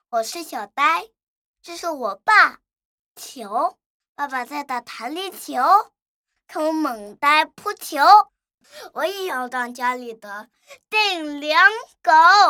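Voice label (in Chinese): 女大音-男真童; 真童配音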